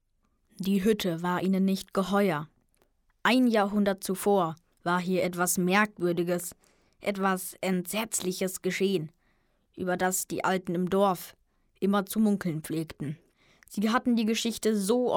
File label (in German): jungen; Die Hütte